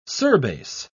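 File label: "surbase", British English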